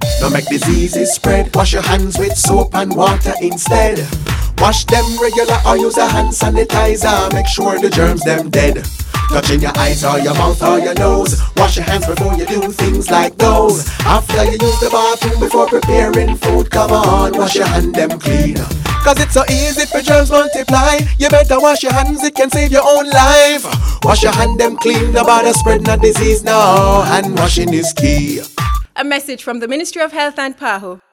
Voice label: Influenza Jingle